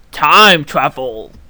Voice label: pu time travel voice; KevinBlast; marble